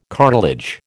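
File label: "cartilage", American English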